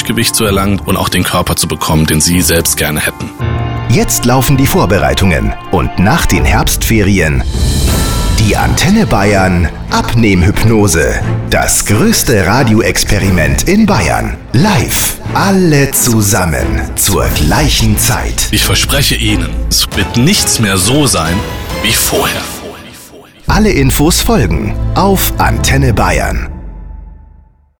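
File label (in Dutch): Duitse stemacteur voice-over Promo Hypnose, German voice actor; Antenne Bayern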